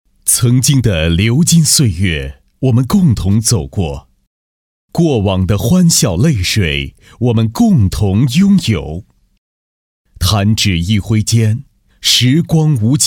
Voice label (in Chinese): 专题, 国语男声配音; 同学会